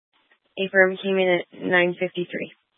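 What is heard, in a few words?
Sheriff Dispatch, Scanner audio recording archive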